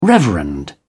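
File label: "reverend", British English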